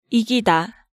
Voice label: "Igida", Korean

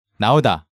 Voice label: "Naoda", Korean

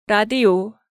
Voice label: "Radio", Korean

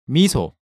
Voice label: "Miso", Hangeul sounds; DigitalSoju

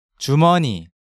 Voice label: "jumeoni", Korean; Hangeul

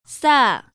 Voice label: "Ssa", Korean

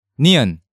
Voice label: "Nieun", Korean

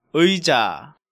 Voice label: "Uija", Korean

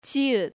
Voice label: "Jieut", Korean

